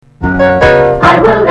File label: DISTORTED